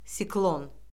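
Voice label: "siklon", Azerbaijani; Orfoqrafiya Lüğəti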